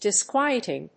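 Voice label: "disquieting", English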